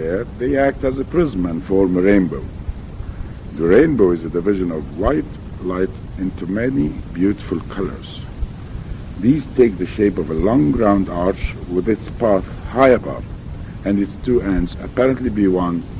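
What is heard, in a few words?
egypt, International Dialects of English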